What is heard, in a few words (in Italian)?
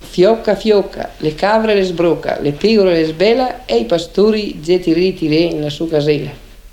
filastrocca; basso veneto; fioca fioca